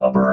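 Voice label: "upper", robot voice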